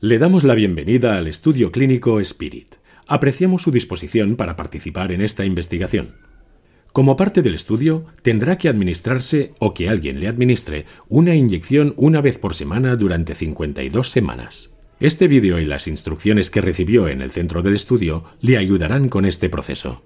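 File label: e-learning, Catalan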